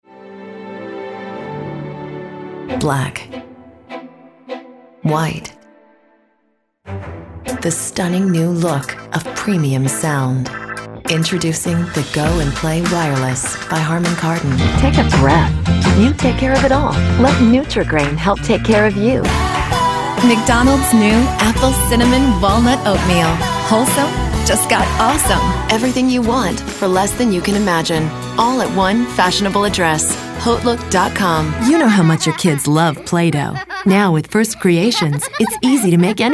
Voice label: commercial, commercial women